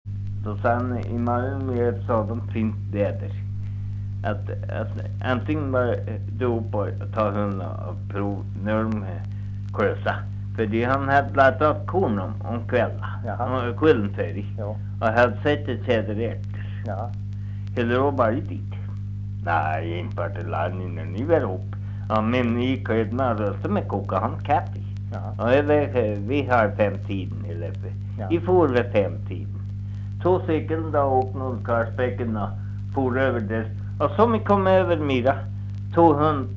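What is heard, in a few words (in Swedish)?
Norsjö Västerbotten, dialekter; Folkminnen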